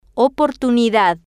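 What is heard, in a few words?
"oportunidad", female, Spanish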